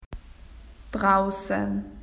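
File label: "outside", Australian English; PictureDictionary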